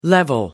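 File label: "level off", British English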